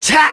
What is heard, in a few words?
Lusicas Vox Attack, voices heroes en; kingsraid-audio